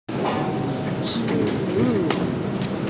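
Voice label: "shi2wu4", Mandarin Chinese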